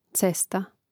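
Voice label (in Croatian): "cesta", Hrvatski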